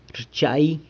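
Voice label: "rchai", he warms, San Lucas Quiaviní Zapotec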